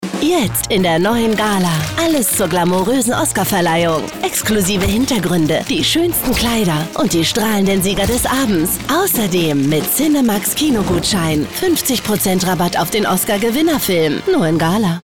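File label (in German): off, sprecher; LOFT VOICES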